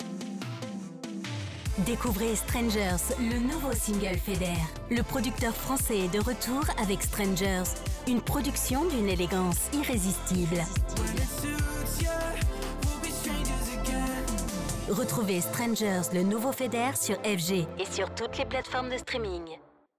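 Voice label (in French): Pub radio, comédienne voix-off; Radio FG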